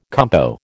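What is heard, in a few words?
"compo", American English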